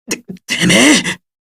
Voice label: moody

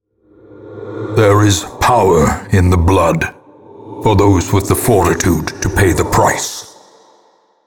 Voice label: narration

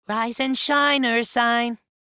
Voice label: rise and shine sad

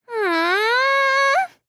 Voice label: hmmmmm

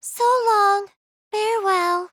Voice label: so long sad; monophanie

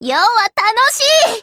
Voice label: fun; fgo; nero

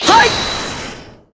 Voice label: sir! voice crack; ryuunosuke

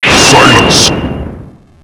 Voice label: demon "silence"; kristoph

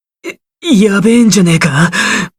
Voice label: annoyed

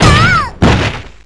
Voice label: dynamic, jp; p4u

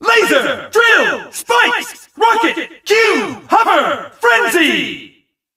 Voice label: all wisps calls, announcer; sonic; colors

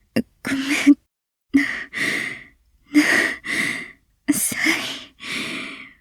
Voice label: pained apology; moeka

